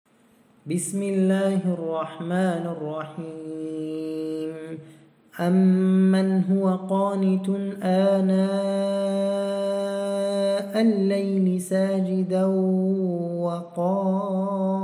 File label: Recitation